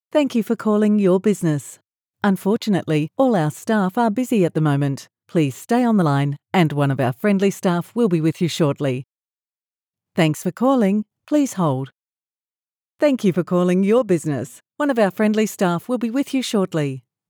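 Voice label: english australian interactive voice response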